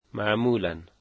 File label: usually, Dari